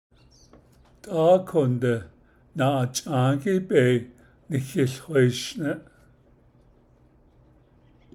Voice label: That is all I have to say about the picture, Navajo